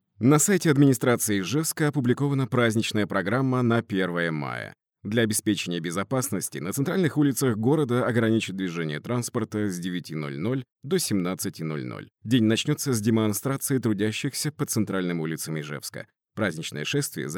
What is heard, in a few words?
male russian voice over talent upbeat